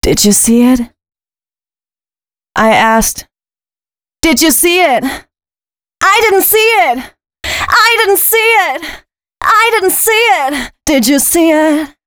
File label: female spoken hooks, dance ultra voice